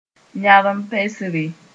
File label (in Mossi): "yãrem-pesre", moore